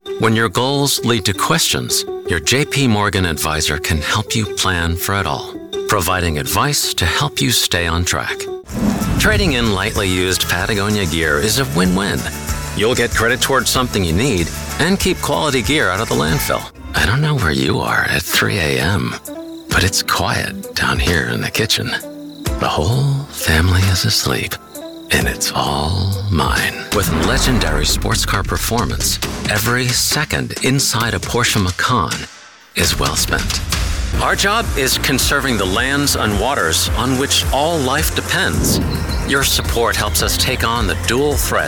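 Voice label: Commercial English, voice actor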